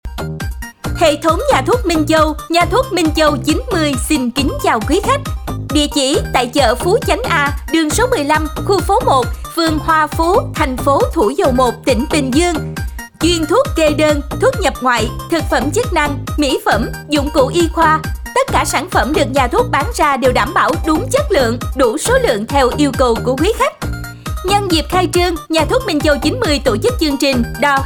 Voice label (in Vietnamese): Thu am Quang Cao; Nha Thuoc Minh Chau